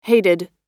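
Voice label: "hated", American English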